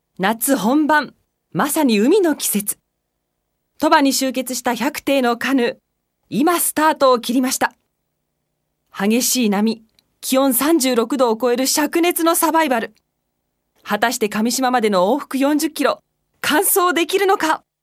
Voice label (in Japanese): スポーツMC